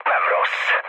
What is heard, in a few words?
trick, speech hun; War Thunder